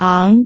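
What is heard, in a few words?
"aang2", Cantonese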